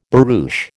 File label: "barouche", American